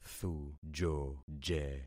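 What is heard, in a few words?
"thujoje", concatenated syllables; Affixing experiment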